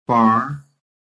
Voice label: "FAR", american